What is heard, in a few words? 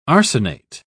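"arsenate", British English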